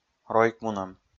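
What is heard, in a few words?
"ройкмунӧм", Komi, male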